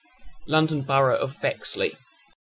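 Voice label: "London Borough Bexley", British English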